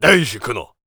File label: warrior conversation emotion angry